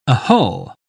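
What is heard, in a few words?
"ahull", British English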